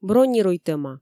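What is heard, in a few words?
"бронируйтӧма", Komi, female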